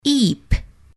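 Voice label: eep voice over, English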